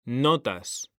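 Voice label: "notas", Spanish, male; mekanta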